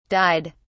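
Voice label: "dyed", British English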